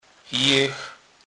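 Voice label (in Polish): "jech", Polish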